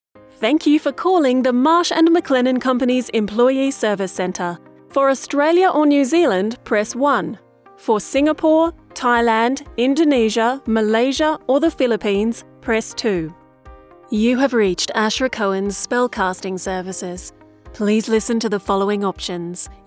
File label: IVR Voicemail